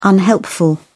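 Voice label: "unhelpful", British English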